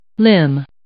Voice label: "limn", English

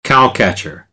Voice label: "cowcatcher", American English; ɚ - əɹ

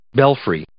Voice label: "belfry", English

